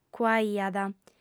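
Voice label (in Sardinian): "cuaiat", female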